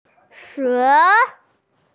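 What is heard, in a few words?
"xiong2", Chinese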